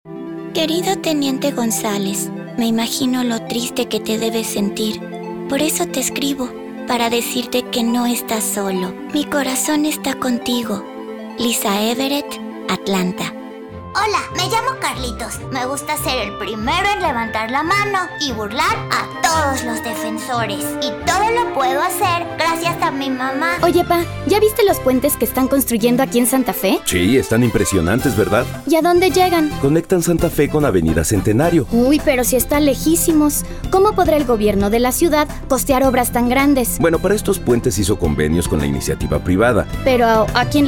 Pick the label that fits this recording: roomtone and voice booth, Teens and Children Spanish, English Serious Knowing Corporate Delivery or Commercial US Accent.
Teens and Children Spanish